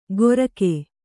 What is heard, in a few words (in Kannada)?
"ಗೊರಕೆ", Kannada